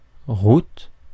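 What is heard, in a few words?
"route", French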